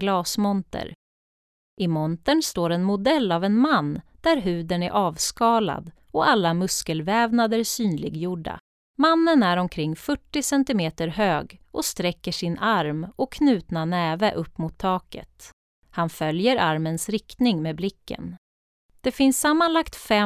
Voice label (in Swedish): syntolkning; Station 3